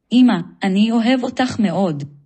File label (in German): Hebraeisch